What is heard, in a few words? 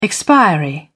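"expiry", English, British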